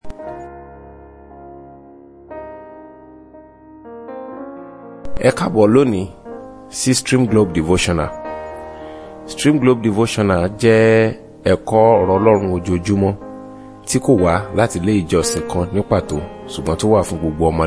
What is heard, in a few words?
Devotional Audio Yoruba